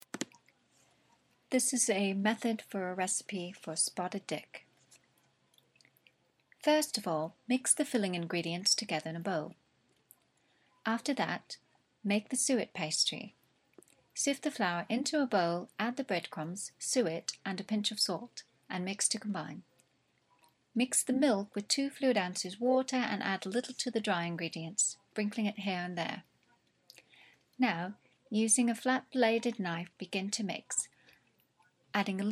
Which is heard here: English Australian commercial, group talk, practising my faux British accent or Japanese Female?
practising my faux British accent